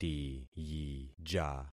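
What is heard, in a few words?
"tiyija", concatenated syllables; Affixing experiment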